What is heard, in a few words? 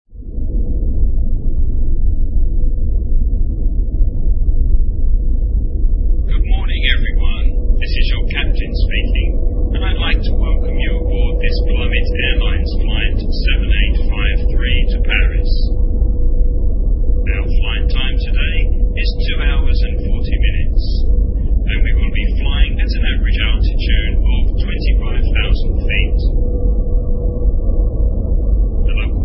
pilot announcement